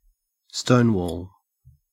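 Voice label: "stonewall", Australian English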